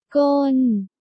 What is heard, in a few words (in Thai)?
"ก้น", Thai